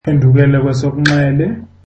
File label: zulu Turn left